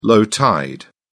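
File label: "low tide", British English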